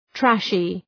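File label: "trashy", English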